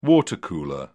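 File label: "water cooler", British English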